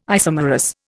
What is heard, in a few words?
"isomerous", Indian